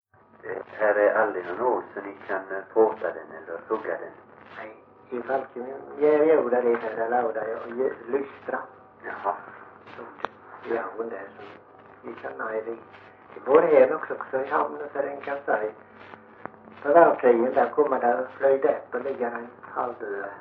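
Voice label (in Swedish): Morup Halland, dialekter; Folkminnen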